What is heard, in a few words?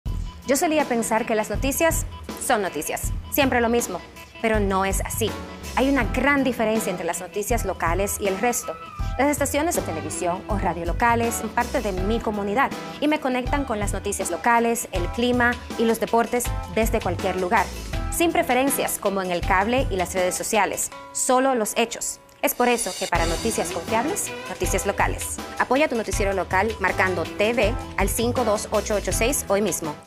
Spanish; National Association of Broadcasters